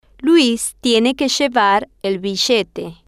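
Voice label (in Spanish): luis tiene que llevar el billete, spanish, female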